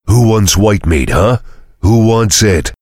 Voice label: heavy taunts; tf; duke